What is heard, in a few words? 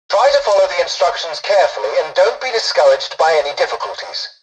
tutorial dialogue, english; War Thunder